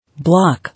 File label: "Block", English